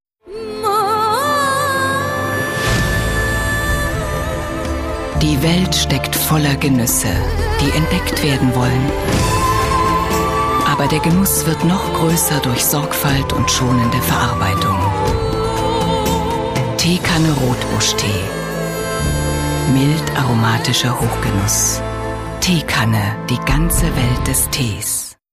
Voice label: Werbung Sanft

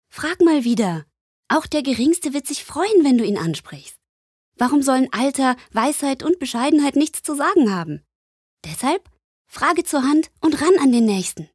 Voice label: Werbung Kindlich

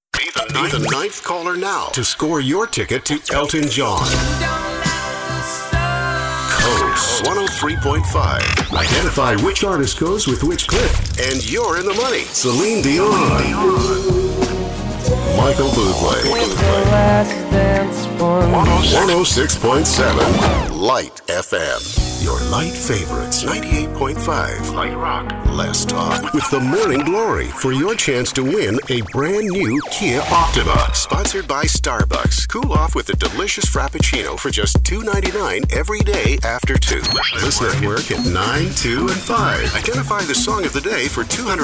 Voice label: Eng US Dynamisch

